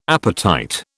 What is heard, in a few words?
"apatite", British